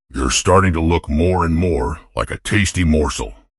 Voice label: happy; fertig classic games